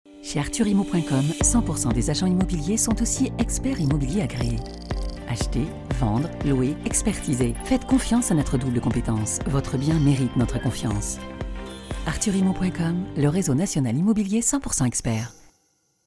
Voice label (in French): PUB ADULTE FEMME; ARTHURIMMO